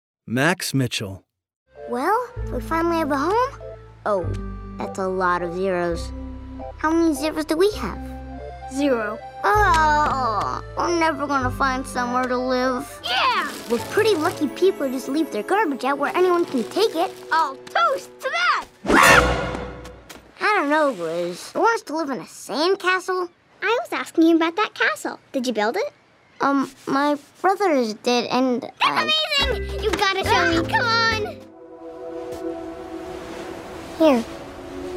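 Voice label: animation men, voices men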